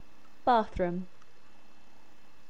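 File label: "bathroom" English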